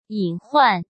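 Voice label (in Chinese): "隐患", Mandarin Chinese; hsk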